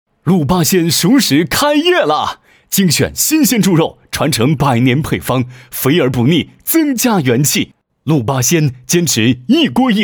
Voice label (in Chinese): 男 促销广告 熟食; 卤扒鲜熟食